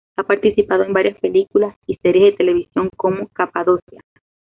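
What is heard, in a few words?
"series", Spanish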